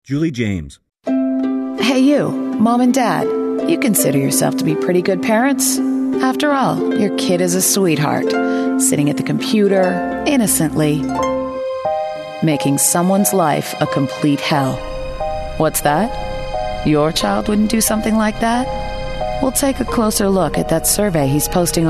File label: commercial female